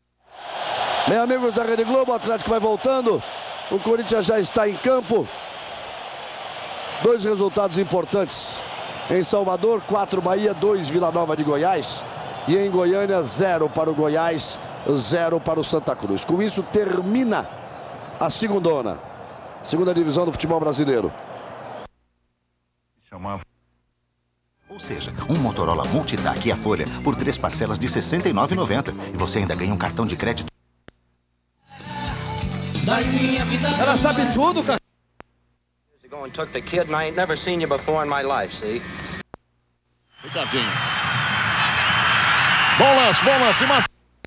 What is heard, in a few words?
Radio, Football; Fieldrecordings; Brasilien